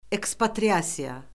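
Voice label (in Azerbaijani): "ekspatriasiya", Azerbaijani; Orfoqrafiya Lüğəti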